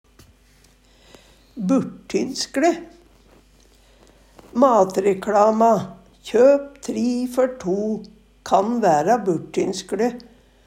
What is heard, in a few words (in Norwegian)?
"burttynskle", Numedalsmål